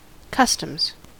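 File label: "customs", US English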